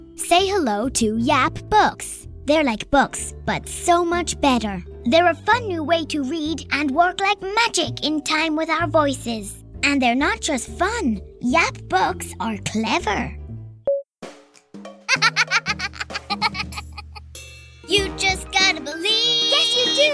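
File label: English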